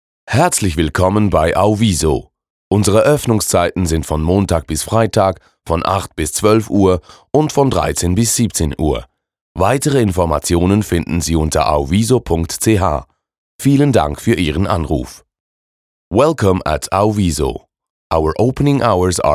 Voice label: Beantworter de eng; auviso

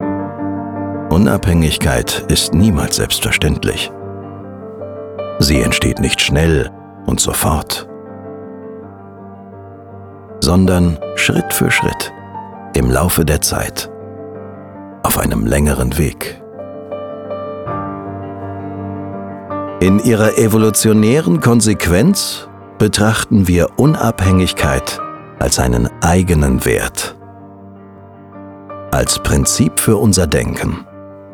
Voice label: emotionaler imagefilm

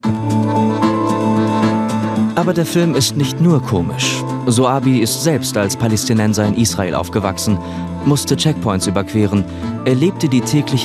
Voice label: kommentar film; titel thesen temperamente; tel aviv on fire

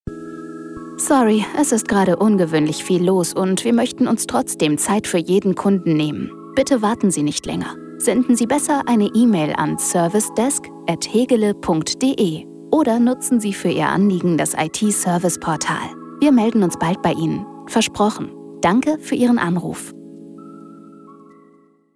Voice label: telefonansage; hegele

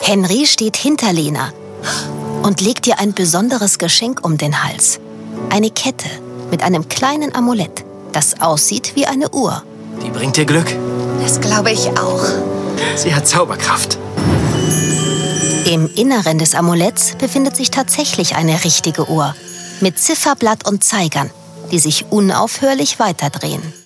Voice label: hoerbuch; zdf; find me in paris

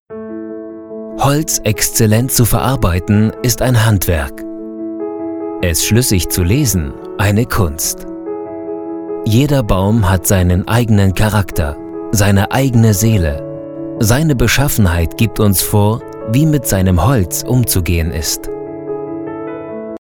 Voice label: imagefilm emotional

